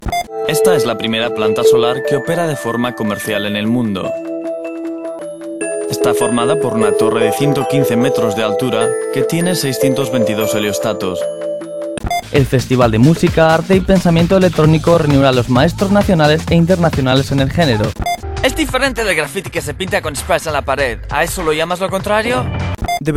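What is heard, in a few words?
Spanish, English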